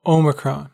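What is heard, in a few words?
"omicron", American English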